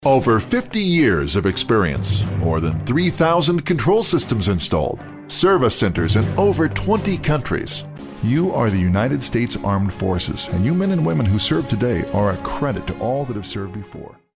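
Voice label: American English, male, voice over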